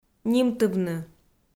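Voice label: "нимтывны", Komi, female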